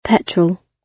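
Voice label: "petrol", British English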